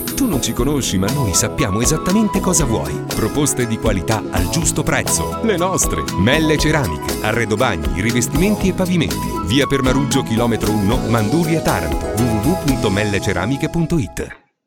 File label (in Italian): publicita